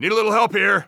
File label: radio taking fire; vo; Counter Strike Model Pack; CS:GO; phoenix coop